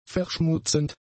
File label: "verschmutzend", German; adjectives